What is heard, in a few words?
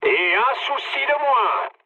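French player killed enemy mood med; War Thunder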